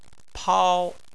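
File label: "pao" first tone, Chinese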